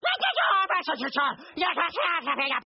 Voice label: babble

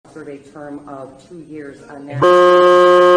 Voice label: "bruh" glitch

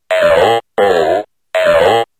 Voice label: lol speak n spell

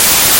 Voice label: electro jibberish

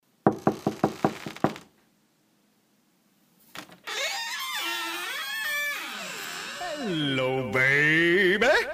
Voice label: friendly greeting

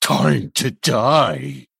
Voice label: male fork play

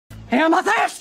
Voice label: psychotically yells; steven universe; pearl; amethyst

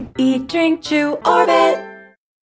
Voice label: airplane commercial; orbit

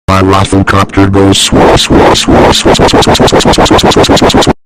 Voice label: rofl copter sped up

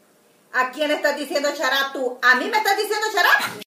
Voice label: yelling shut up for no reason, en español; vine

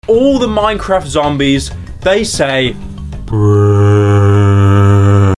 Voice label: zombie bruh

